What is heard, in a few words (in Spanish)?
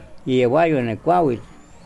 Nawat palabras corteza; pipil